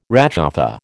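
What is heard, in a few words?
"réchauffé", American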